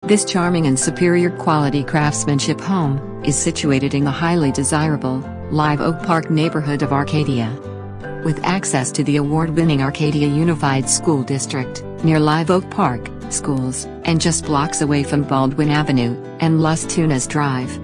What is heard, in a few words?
Website voice